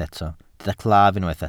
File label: "claf", welsh